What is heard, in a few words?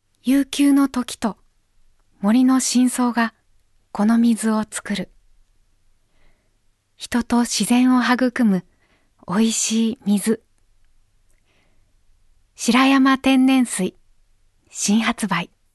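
Voice Sample Narration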